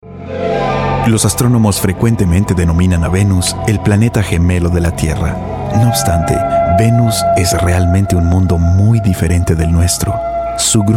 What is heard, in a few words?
spanish voice over male narrator